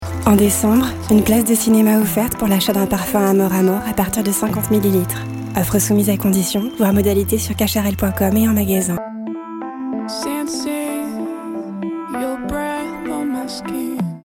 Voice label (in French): pub parfum; CACHAREL